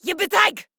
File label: Attack, Female; Warframe; Modular Corpus Female; Battle Barks; en